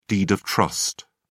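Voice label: "deed of trust", British English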